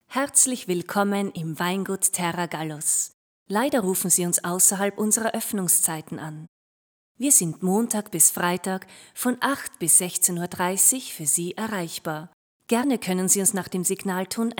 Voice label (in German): Telefonansage Weingut